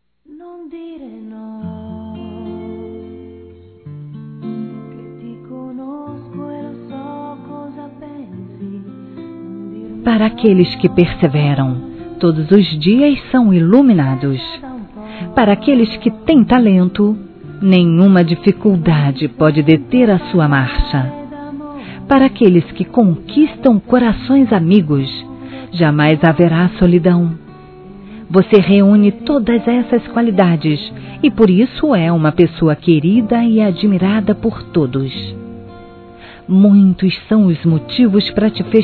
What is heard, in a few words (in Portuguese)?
ANIV. FUNCIONÁRIO F; Aniversários; Aniversários diversos; fem